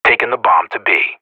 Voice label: Professional Radio bot planting; Counter-Strike: Global Offensive; PS3, Windows, Xbox 360

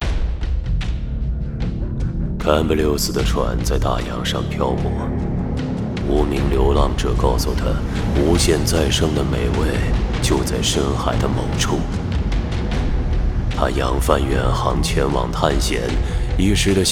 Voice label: Chinese Video Gluttony; sinner sacrifice for redemption

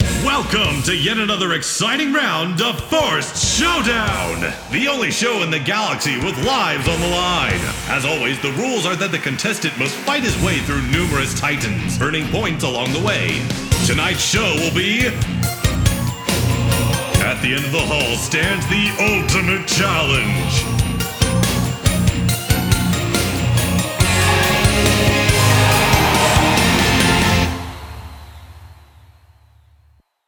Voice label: Mission VO and Music Generic Presentation

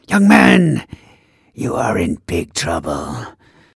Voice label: generic male senior busted

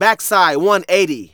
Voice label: Narration; Ollie King

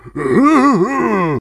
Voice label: Voices Sleeping Villagers; MediEvil

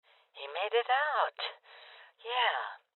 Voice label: Voices Cop Evacuated Female Old; Rebel Cops

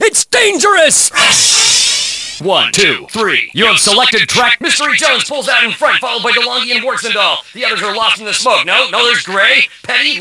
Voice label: Announcer; San Francisco Rush Extreme Racing

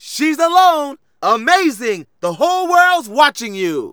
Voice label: Narration

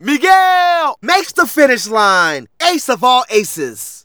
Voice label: Narration; Ollie King

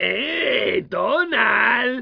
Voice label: Espanol Boy hey; Donald Duck Goin' Quackers

